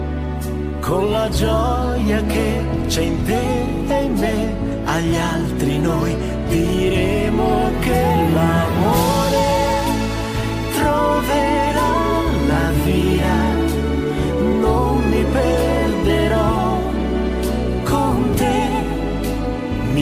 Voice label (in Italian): Italian; Lion King 2